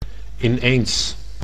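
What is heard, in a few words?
"ineens", Dutch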